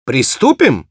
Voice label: battle cry, ru